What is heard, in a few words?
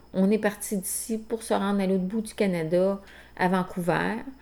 Canada phrase